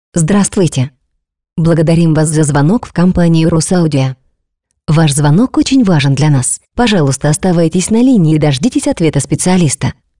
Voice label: robot